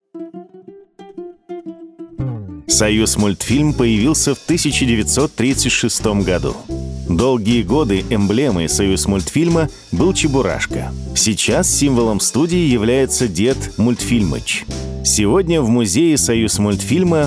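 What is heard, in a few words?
russian male commercial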